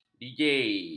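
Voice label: "deejay", Malay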